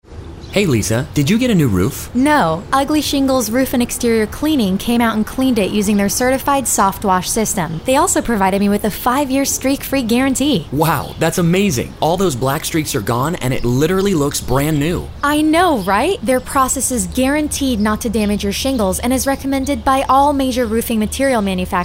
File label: Radio Commercial